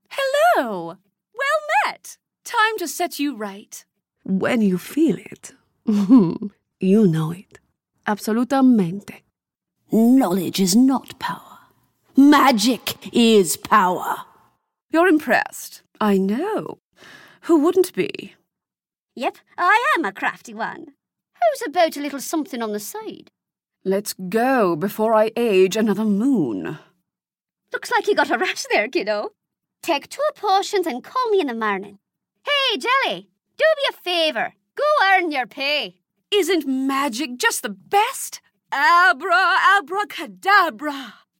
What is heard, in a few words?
Voice Talent Character Animation